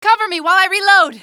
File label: cover while reload, female; Half-Life 2